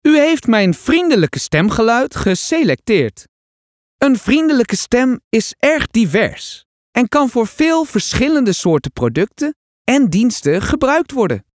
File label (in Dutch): Vriendelijk